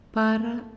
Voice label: "Leaf", Naasioi